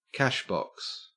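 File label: "cashbox", Australian English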